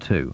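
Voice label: "two", English, GB, male; tu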